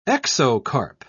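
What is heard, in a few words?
"exocarp", British English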